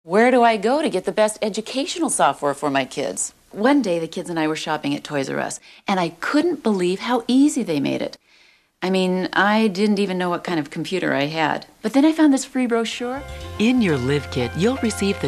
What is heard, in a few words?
Voiceover Demo